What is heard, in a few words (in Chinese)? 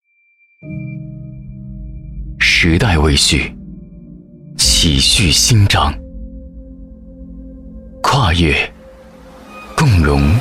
男 低沉旁白 地产广告